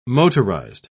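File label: "motorised", British English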